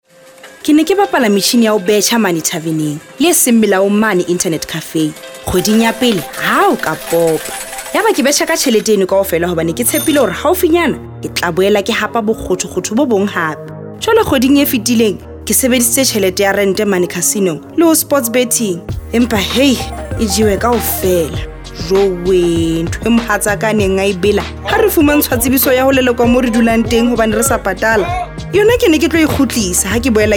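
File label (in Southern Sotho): Sesotho, Awareness Campaigns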